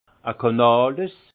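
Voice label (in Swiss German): "kanales", alsace Haut-Rhin; vin; eau de canal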